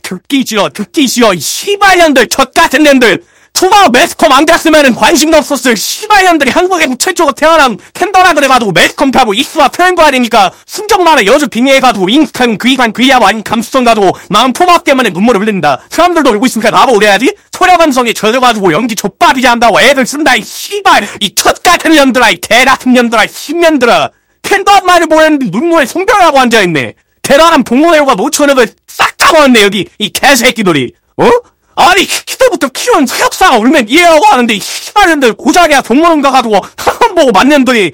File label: scout cheers